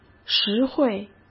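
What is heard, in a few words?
"实惠", Mandarin Chinese; hsk; chinese-flashcards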